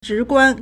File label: "zhi2guan1", Chinese